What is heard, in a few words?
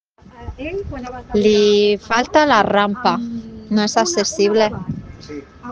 Voice message